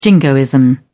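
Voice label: "jingoism", British English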